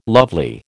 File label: "lovely", American